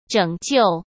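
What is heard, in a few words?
"拯救", Mandarin Chinese; chinese flashcards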